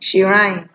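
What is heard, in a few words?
"shrine", Nigerian Pidgin, female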